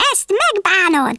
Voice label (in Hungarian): "you'll regret that", Hungarian; Worms Armageddon